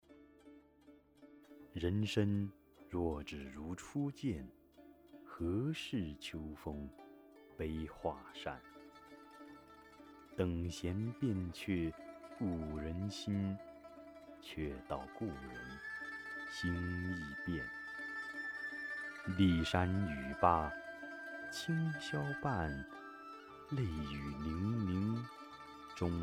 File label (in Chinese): 古诗配音